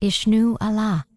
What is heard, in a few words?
Night Elf Female Standard NPC Greeting